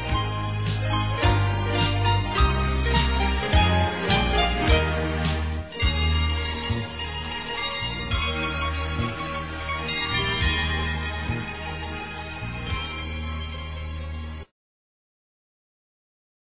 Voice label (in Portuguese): NATAL ALGUEM ESPECIAL, fem; Telemensagens; Natal e Ano novo